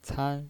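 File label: "can", Mandarin Chinese, first tone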